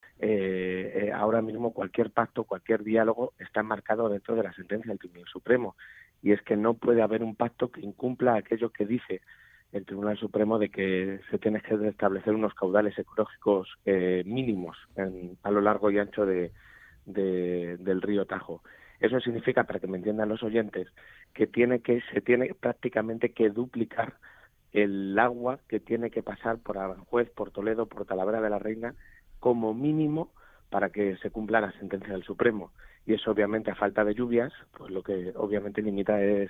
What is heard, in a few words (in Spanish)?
entrevista radio